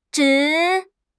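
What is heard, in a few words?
"zhi3", Mandarin Chinese; HSK4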